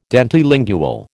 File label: "dentilingual", American